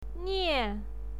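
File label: "nie" fourth tone, pinyin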